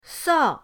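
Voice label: "sao", Mandarin pinyin, fourth tone; pinyindct-open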